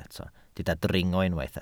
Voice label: "dringo", Welsh

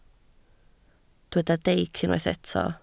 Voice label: "deic", Welsh

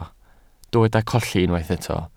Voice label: "colli", Welsh

